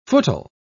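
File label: "footle", British English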